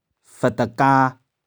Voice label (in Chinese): "feh4teh4ka3", 玉環